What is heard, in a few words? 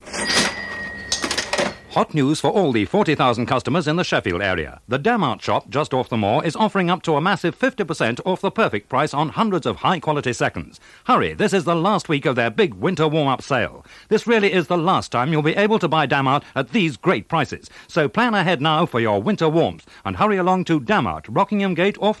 Radio Advert; Radio Hallam; Damart Shop